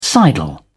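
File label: "sidle", British English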